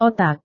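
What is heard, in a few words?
"otak", Indonesian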